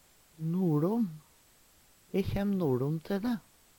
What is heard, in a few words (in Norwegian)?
"noLom", Numedalsmål; nordom; Preposisjon